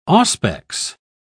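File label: "auspex", British English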